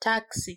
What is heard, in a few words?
"taxi", Sound t